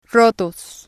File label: "rotos", spanish